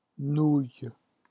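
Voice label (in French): "nouille", French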